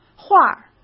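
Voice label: "画儿", Mandarin Chinese; chinese-flashcards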